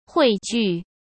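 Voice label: "汇聚", Mandarin Chinese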